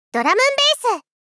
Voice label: "ドラムンベース!", 少女×中音域

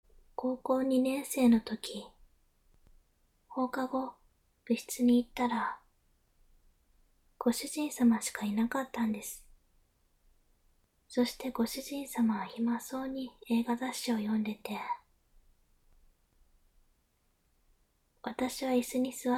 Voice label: 思い出話とピロートーク

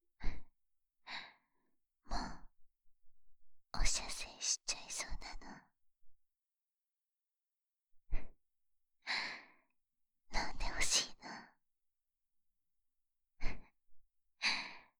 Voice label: 進行用台詞, ASMR, 左耳

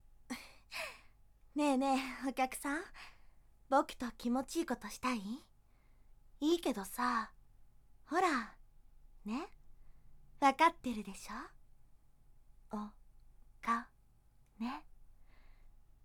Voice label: 自己紹介, ASMR; けもみみBさん

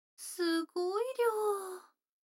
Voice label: 女の子台詞, ASMR

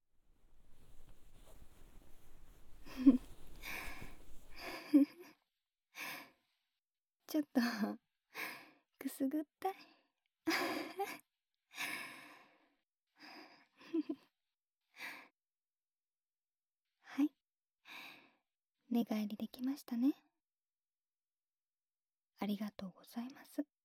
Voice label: 膝枕で耳かき（左耳）, ASMR

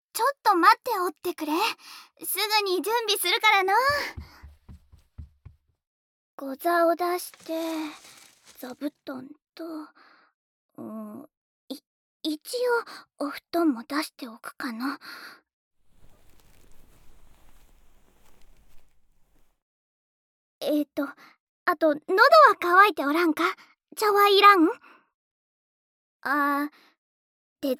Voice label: 世話好き童女の優しい耳かき・耳掃除（右耳）, ASMR

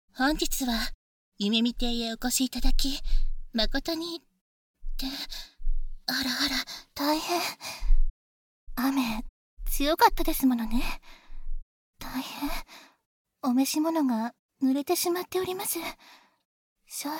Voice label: バイノーラルマイク 効果音なし; ようこそ夢見亭へ

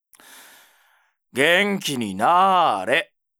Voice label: ouen, ASMR

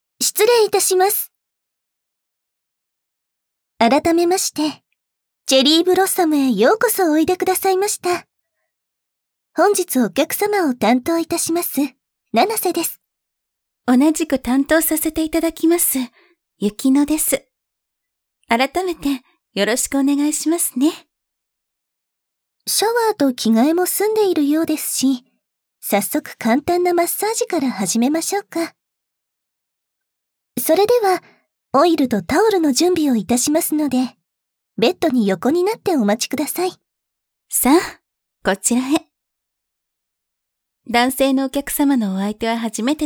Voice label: リラクゼーションマッサージ (効果音なし)

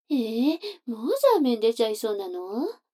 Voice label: 女の子台詞, ASMR

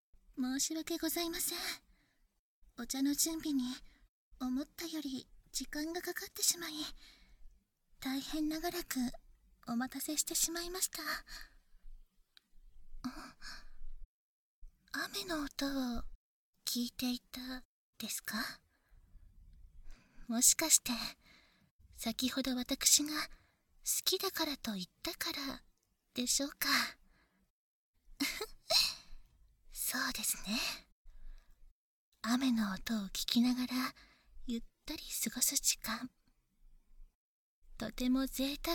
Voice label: まったり雨の音を聞きながらお茶(囁き重視編集 効果音なし)

